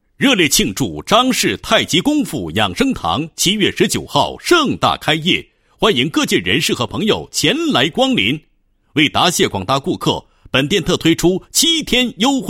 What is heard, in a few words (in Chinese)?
男 宣传广告 开业宣传; 张式太极功夫养生堂